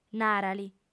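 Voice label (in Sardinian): "nara·li", female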